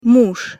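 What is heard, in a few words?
"muz", Russian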